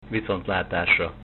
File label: goodbye, Hungarian